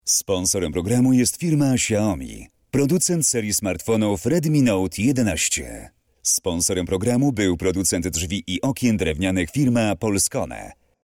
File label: voice m